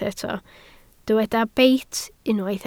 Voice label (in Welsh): "beit" Welsh